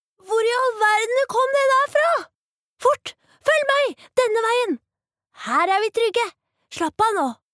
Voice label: no dub, norwegian, female